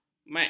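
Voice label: "mac", Malay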